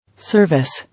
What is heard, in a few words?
"Service", English